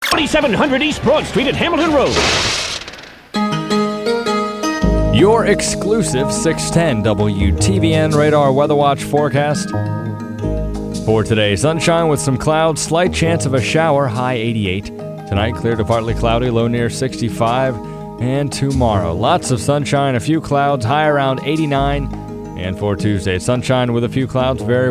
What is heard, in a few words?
aircheck